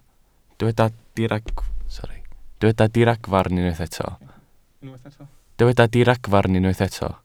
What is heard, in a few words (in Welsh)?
"diragfarn" Welsh